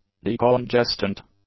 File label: "decongestant", english; adjectives